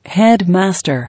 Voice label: "Headmaster", English